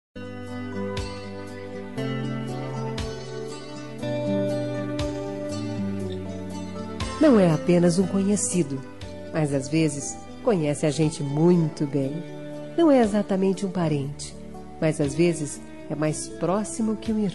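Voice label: female; cunhado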